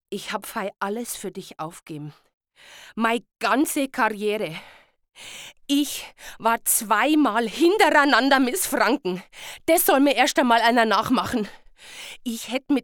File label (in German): fraenkischer monolog